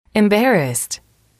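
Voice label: "emberrassed", english, female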